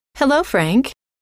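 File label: "hello", female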